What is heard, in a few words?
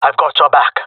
covering friend, jungle male; player vo; Counter Strike Model Pack; CS:GO